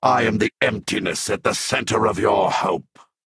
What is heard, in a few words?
spiteful dremora enter play